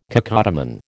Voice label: "cacodemon", American

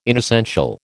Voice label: "inessential", American

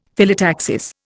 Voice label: "Phyllotaxis", Indian; Dictionary

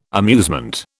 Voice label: "amusement", British

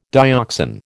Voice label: "dioxan", American

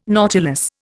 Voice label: "nautilus", Indian

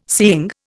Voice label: "seeing", Indian

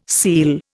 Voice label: "seel", Indian

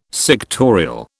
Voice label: "sectorial", British

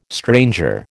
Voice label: "stranger", American

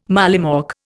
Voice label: "mollymawk", Indian

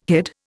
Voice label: "hid", Indian

